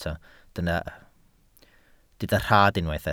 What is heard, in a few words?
"rhad", Welsh